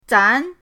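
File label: "zan", Mandarin pinyin, second tone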